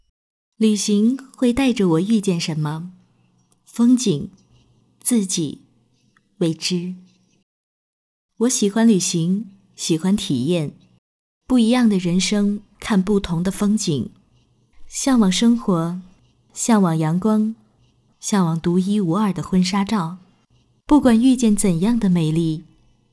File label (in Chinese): 独白婚礼